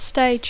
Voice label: "stage", English